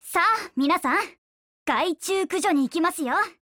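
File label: GO ATTACK, Japanese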